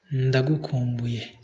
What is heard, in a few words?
i miss you, kinyarwanda